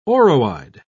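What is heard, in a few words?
"oroide", British English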